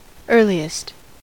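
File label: "earliest", US English; pronunciation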